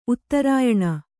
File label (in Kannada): "ಉತ್ತರಾಯಣ", kannada